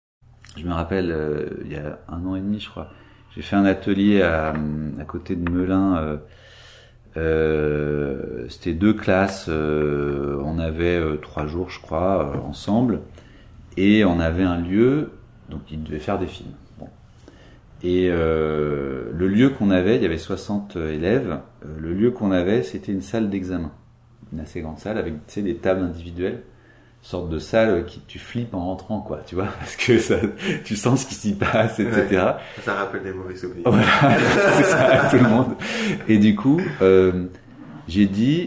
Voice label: Conversation